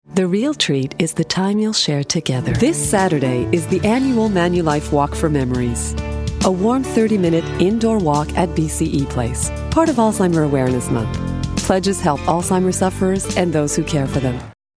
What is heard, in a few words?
ENGLISH - Female - Adult, Canada; Ker Sound Studio Shanghai China